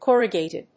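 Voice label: "corrugated", English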